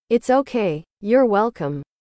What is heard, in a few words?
english